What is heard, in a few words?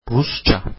"Russian", Turkish